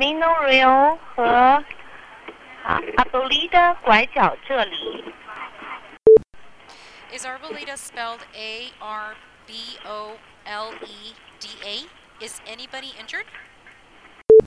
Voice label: 911 Reporting an Accident Mandarin without interpretation